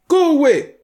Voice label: guard female; SyrinScape; Waterdeep